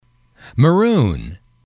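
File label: "maroon", English, male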